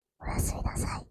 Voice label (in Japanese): おやすみなさい(囁き); 謎のホームビデオ